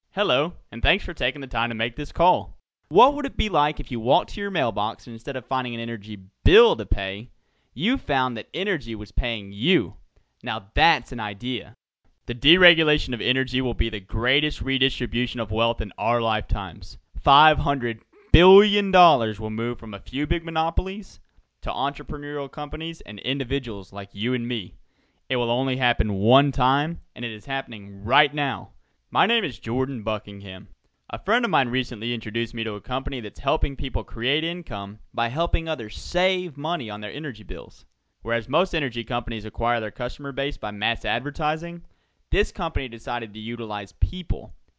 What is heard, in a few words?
Recorded Message